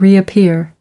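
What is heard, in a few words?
"reappear", US English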